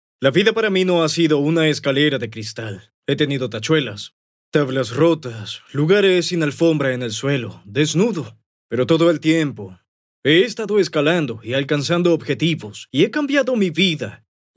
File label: locutor espanol neutro spanish american voiceover